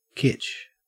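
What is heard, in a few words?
"kitsch", Australian English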